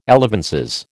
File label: "elevenses", American